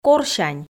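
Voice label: "корсянь", Komi, female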